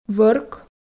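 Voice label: "вӧрк", Komi, female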